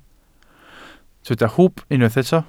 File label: "hwb", Welsh